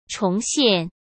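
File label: "重现", Mandarin Chinese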